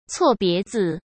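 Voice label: "错别字", Mandarin Chinese; chinese flashcards; hsk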